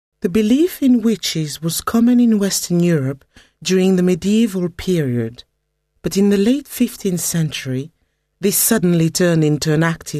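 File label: French English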